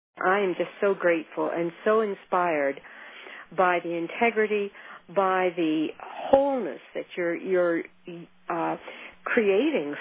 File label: Testimonial